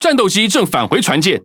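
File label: Speech Cons Pilots Cat Fight Return, Taiwan; World Of Warships; ZH TW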